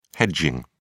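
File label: "hedging", British English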